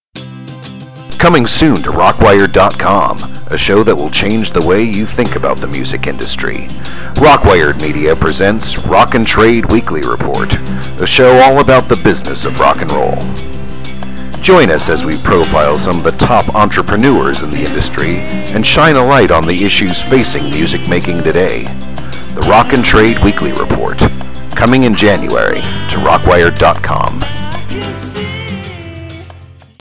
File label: commercial